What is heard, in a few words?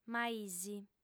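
"maìs", female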